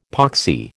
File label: "poxy", American